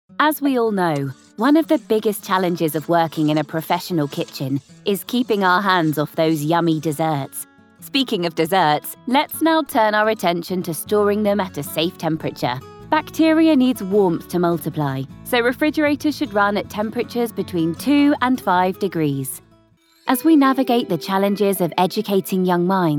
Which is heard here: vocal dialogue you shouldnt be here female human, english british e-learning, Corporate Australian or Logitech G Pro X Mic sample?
english british e-learning